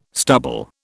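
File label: "stubble", British English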